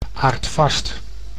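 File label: "aardvast", Dutch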